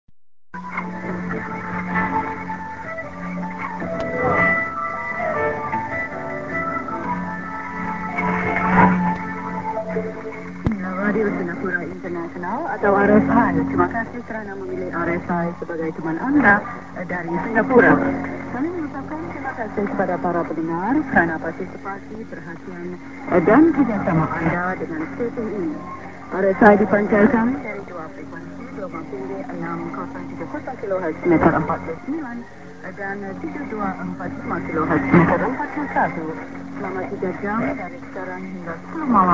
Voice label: St. music->ID+SKJ(women)->1'00:ID(women), Int. English; R.Singapore Int.